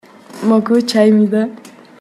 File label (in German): koreanisch